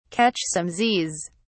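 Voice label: "catch some z's", British English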